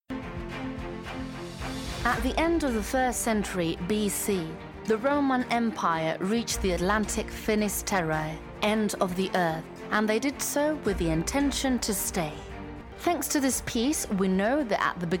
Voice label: Documentaries English

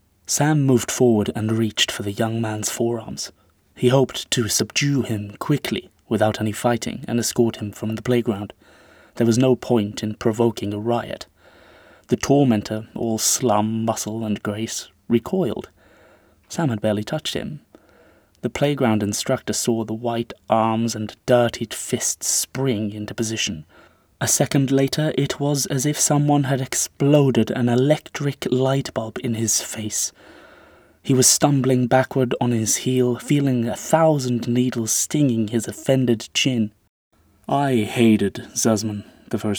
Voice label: Audiobook Character reel

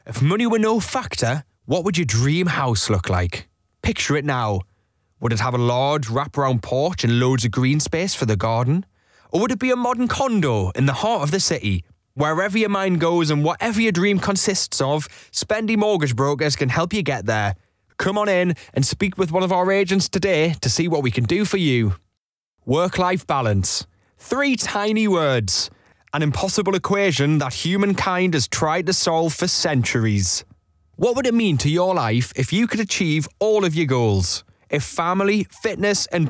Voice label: Corporate

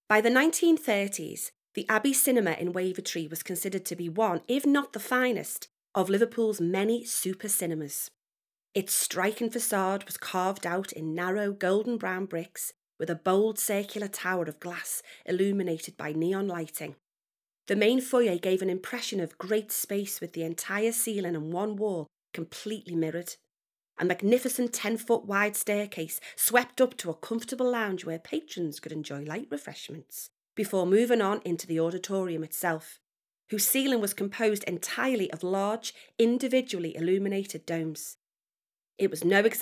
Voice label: infomercials, voiceover; Liverpool super cinemas

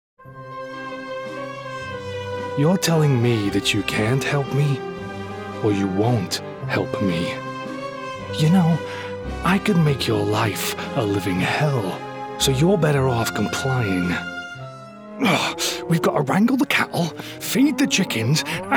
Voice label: Accent

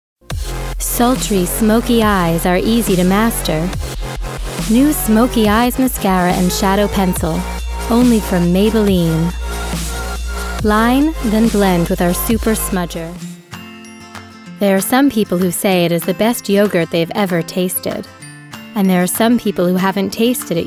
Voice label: Commerical, American, Warm, Friendly, Smooth

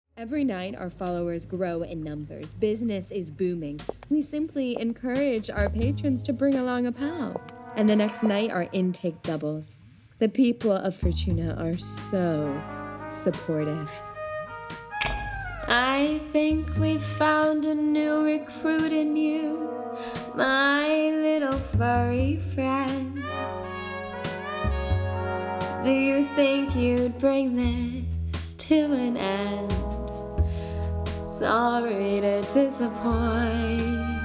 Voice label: Evil New York Bar Owner Song Shouting

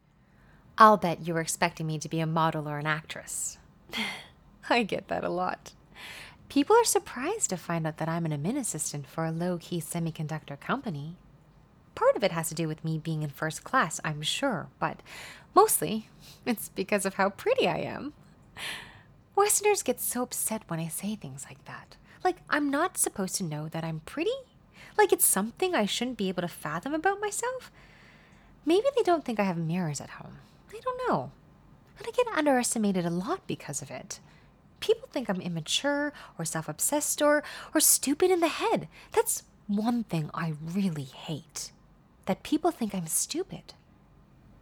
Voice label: Audiobook; Piper

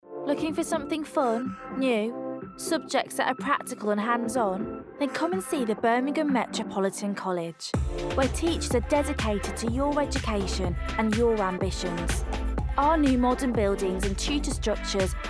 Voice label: Commercial; Birmingham Met

